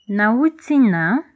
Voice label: I'm from, lingala